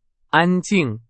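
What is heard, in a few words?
Chinese, vocabulary; hsk2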